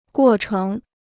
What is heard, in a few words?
"过程", Chinese